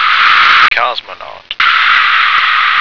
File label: "cosmonaut", American